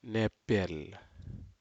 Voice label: "nepel", Aneityum; bushes